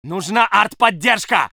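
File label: voice soviet infantry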